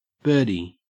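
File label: "birdie", Australian English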